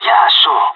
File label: agree, pirate; Counter Strike Model Pack; CS:GO